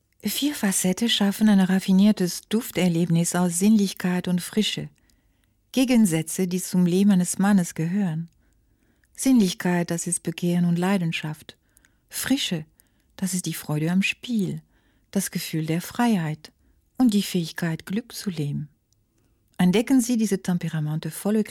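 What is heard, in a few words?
französisch, French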